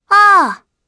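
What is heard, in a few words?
Vox, voices, Japanese; Viska; kingsraid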